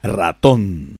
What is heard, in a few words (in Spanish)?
"raton", es